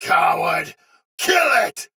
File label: Sight Lost Shout Soldier Sword Agent Male, Battle; Sinecure